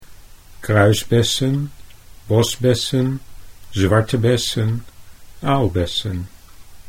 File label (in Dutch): "kruisbessen", Dutch